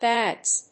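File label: "pads", English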